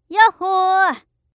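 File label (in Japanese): "やっほー" Japanese; 挨拶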